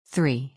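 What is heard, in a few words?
"three", English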